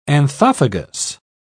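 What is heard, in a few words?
"anthophagous", British English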